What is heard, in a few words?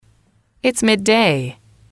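"it's midday", English, female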